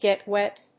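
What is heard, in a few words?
"get wet", English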